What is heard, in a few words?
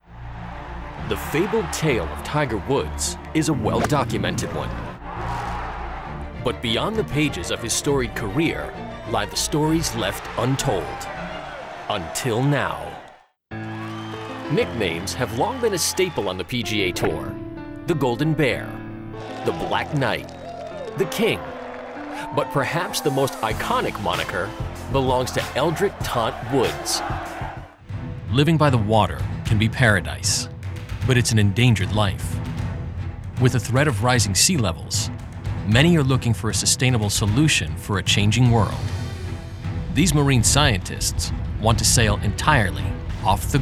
Documentary